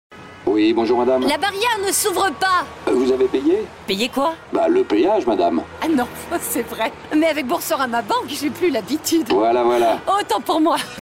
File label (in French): pub comedie confuse; boursorama